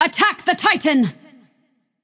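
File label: attack, male; smite; medusa; voicepack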